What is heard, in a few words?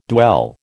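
"dwell", American English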